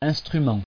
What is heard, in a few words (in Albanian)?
"instrument", frengjisht